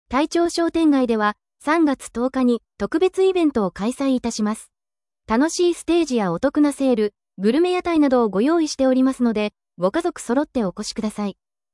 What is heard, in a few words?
sample ad